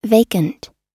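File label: "vacant", American English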